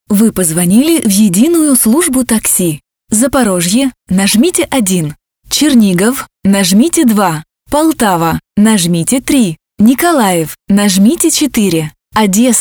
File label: IVR